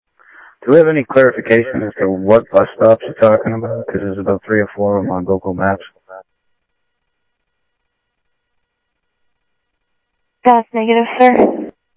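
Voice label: Sheriff East County, scanner audio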